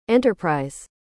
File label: Enterprise en us